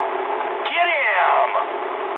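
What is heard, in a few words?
English attack position mood high; speech; War Thunder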